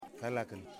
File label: "Son", Yimas